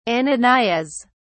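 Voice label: "ananias", British English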